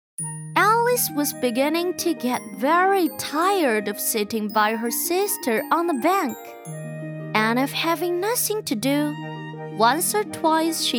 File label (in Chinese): 女,英文童话故事